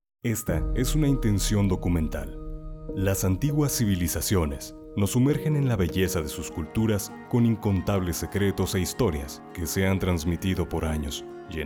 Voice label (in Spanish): Documental